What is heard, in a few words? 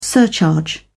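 "surcharge", British English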